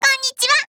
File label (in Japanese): VO jp